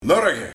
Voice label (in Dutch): "Morrege", Morgen